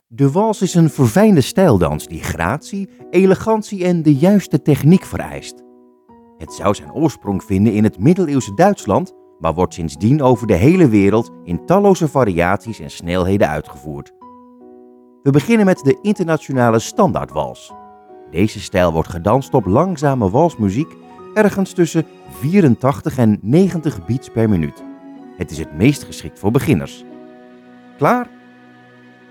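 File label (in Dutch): E-learning demo; voice-over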